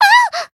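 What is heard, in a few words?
Voice damaged, Japanese; yuna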